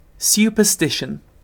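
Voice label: "superstition", British English; pronunciations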